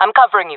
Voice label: covering friend, fem; player vo jungle fem